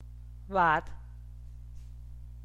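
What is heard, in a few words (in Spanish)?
"pato", Teotitlán del Valle Zapotec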